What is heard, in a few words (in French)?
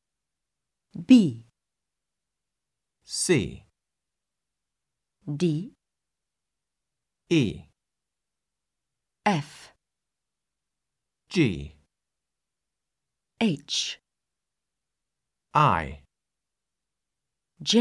alphabet anglais